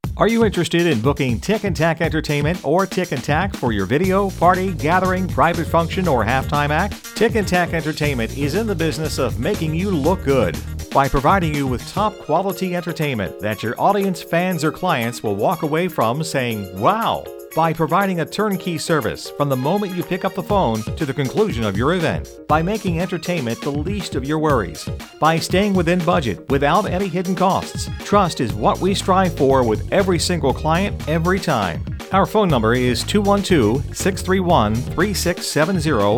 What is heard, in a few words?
Announce